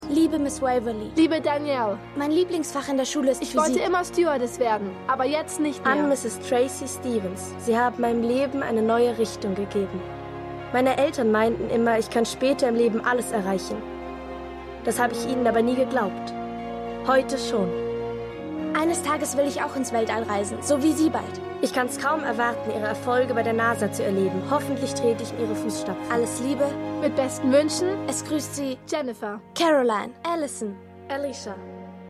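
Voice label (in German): Div Mädchenstimmen; For All Mankind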